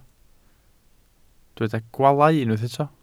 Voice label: "gwaalau", welsh